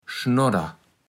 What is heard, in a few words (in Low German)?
"Schnodder", kaevels platt